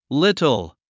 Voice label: "little", English; english sounds review quiz

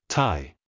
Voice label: "tie", English; english sounds review quiz

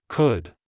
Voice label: "could", English; minimal pairs quiz

